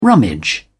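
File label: "rummage", English, British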